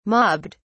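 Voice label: "mobbed", British English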